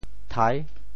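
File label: "thai6", Teochew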